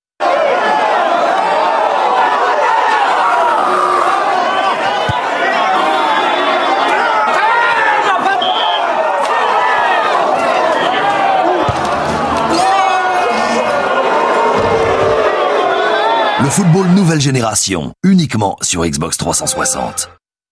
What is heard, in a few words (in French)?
spot TV; xbox 360